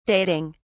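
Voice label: "dating", English, British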